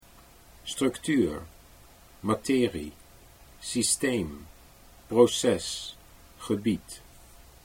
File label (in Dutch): structuur materie, Dutch